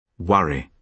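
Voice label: "worry", British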